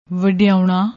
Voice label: "vadaouna", Punjabi; ਵਡਿਆਉਣਾ

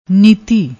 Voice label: "niti", Punjabi

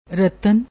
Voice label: "ratan", Punjabi

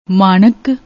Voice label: "maanak", Punjabi; ਮਾਣਕ

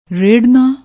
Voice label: "rarnaa", Punjabi

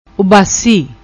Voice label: "Ubasi", Punjabi; ਉਬਾਸੀ

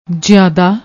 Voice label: "jeeada", Punjabi

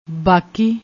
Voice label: "baaki", Punjabi

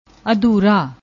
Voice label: "adhura", Punjabi; ਅਧੂਰਾ

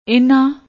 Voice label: "ehna", Punjabi; ਇਹਨਾਂ

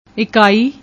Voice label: "ekaii", Punjabi; ਇਕਾਈ

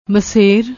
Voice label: "maser", Punjabi; ਮਸੇਰ

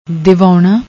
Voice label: "divaona", Punjabi; ਦਿਵਾਉਣਾ

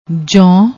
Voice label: "jon", Punjabi

